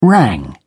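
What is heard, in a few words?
"rang", British English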